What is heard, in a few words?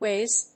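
"whiz", English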